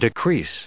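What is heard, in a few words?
"decrease", English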